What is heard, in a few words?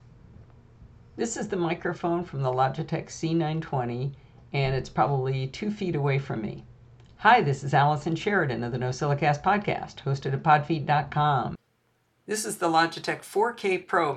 c920 vs Logi 4K mic sample